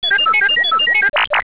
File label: robot; speakjet